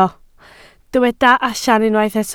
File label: "allan", Welsh